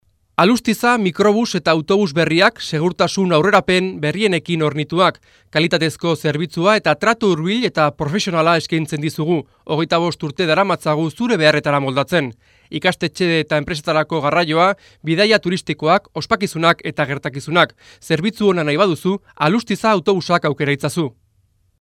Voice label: locutor euskera; Idioma euskera

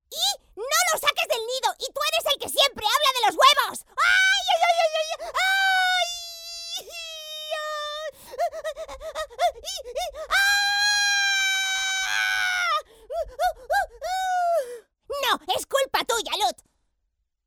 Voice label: locutora dibujos cartoon voices; Spanish cartoon dubbing voice over agency

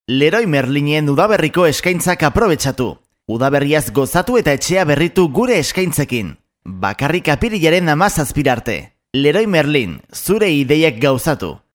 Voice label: locutor euskera basque voiceover

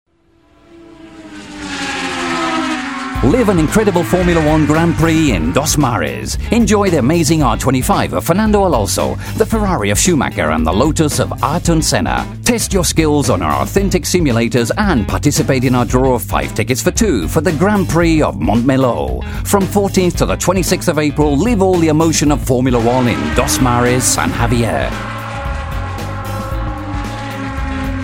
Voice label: locutor ingles publicidad

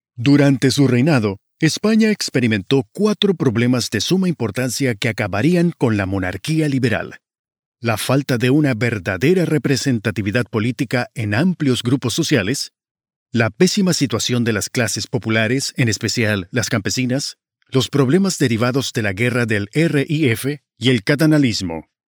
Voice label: locutor espanol neutro american spanish voiceover; locutor de español neutro; locutor latino

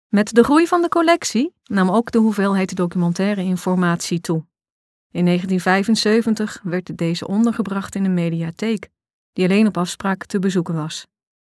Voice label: locutora holandesa

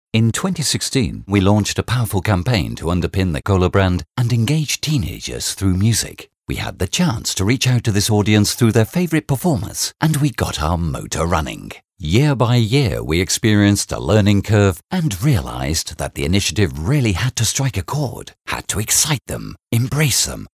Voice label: locutor ingles english voiceover; Locución para web Presentación feria empresarial

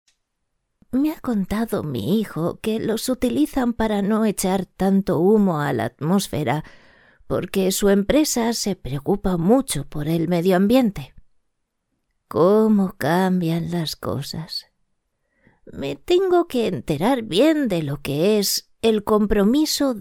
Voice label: locutora abuela spanish voiceover; voces ancianos

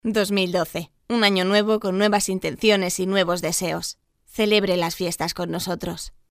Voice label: jingle navidad spanish christmas voiceover locutora; Jingle de Navidad .Felicitación del año 2012; Jingle navideño "Feliz año 2012"

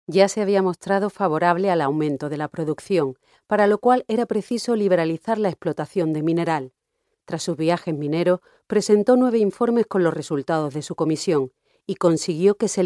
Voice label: locutora andaluza cadiz andalusian voiceover; locutores de doblaje

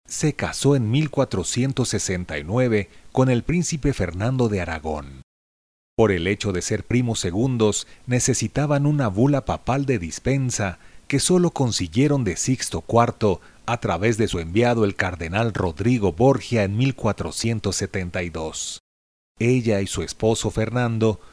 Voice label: locutor mexicano mexican voiceover; locutor de México

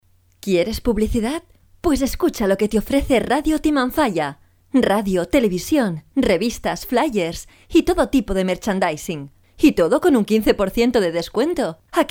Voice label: locutora

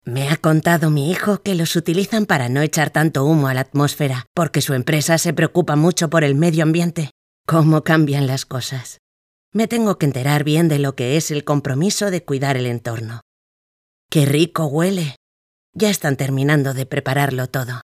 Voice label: locutora abuela 60 spanish voiceover; voces ancianos

